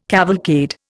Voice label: "cavalcade", Indian